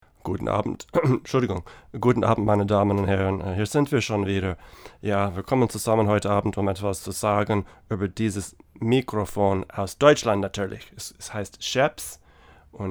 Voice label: VO German; Schoeps CMC341